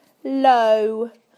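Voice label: "low", British English; PictureDictionary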